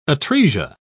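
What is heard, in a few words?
"atresia", English, British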